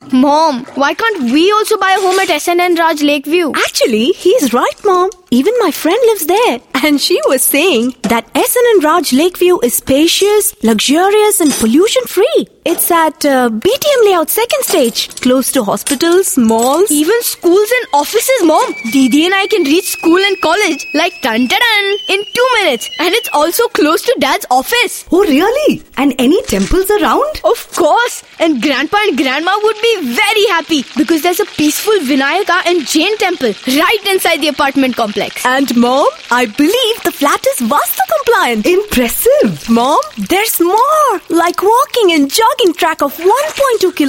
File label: Indian English Female Radio Spot